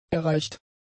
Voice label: "erreicht", German; adjectives

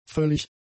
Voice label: "völlig", German; adjectives

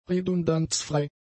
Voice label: "redundanzfrei", German; adjectives

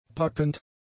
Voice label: "packend", German; adjectives

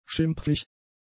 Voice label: "schimpflich", German; adjectives

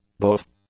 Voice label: "both", English; adjectives

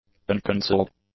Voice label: "uncancelled", English; adjectives

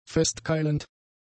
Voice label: "festkeilend", german; adjectives

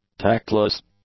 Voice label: "tactless", English; adjectives

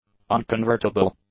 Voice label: "unconvertible", English; adjectives

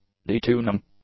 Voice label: "detuning", English; nouns

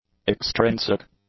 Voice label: "extrinsic", english; adjectives

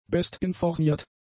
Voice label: "bestinformiert", German; adjectives

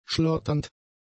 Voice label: "schlotternd", German; adjectives

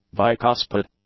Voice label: "bicuspid", English; adjectives

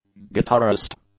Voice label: "guitarist", English; nouns

